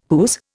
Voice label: "whose", Indian

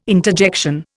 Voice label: "interjection", Indian

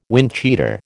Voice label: "windcheater", American

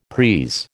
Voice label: "prise", American

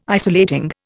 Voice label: "isolating", Indian

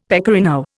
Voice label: "pecorino", Indian; Dictionary

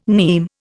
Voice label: "nim", Indian

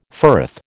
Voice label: "firth", American

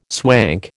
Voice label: "swank", American

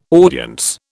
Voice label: "audience", British

